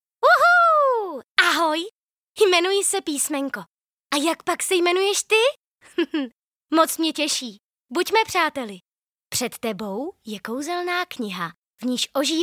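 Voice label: Czech character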